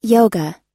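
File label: "stigma", US English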